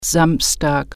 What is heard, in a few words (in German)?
"saturday", German